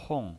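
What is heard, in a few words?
"hong", fourth tone, pinyin voice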